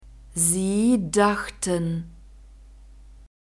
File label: "sie dachten", German; verbs past tense irregular